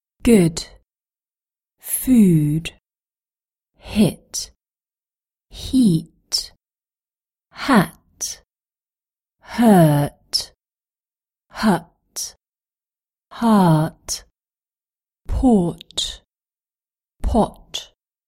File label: Japanese